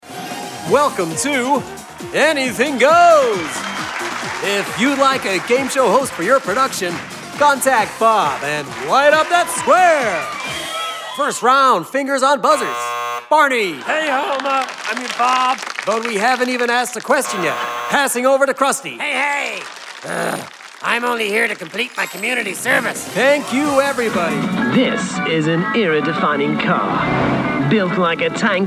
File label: Character